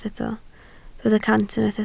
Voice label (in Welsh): "cant", Welsh